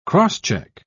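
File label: "cross-check", British English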